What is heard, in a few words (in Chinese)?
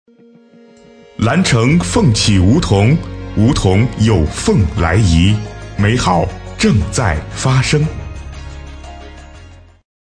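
【男专题】房产轻松类; 蓝城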